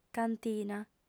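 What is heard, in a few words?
"cantina", female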